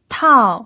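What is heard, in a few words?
"tao", fourth tone, Mandarin Chinese pinyin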